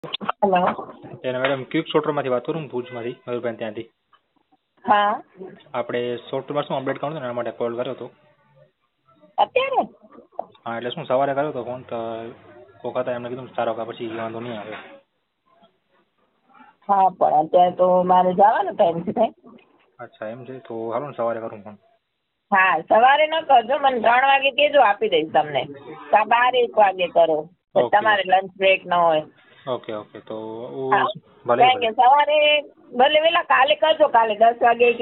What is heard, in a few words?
Call recording; Lion Hospital